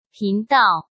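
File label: "频道" Mandarin Chinese; hsk; chinese-flashcards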